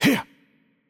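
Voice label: French attack light voice; bloom minigame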